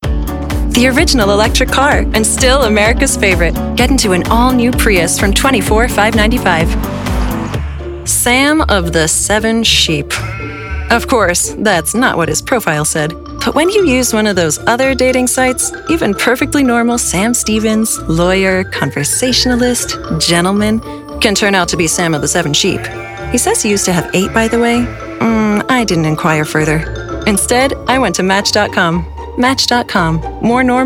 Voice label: commercial